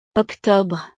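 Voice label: "octobre", French; los meses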